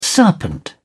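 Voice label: "serpent", British English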